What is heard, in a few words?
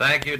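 "thank you", male voice; expletives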